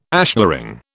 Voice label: "ashlaring", British